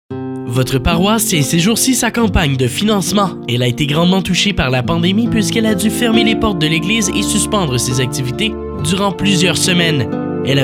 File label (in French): pub campagne financement paroisses; chlc baie comeau